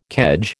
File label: "kedge", American